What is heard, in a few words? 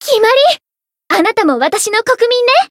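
Voice variable chant, Japanese; tsukiha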